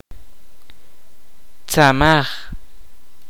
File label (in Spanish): "nariz", Kaqchikel